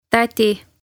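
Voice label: "Tädi", Estonian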